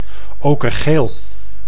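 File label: "okergeel", Dutch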